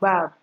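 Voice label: "gbab", Nigerian Pidgin, female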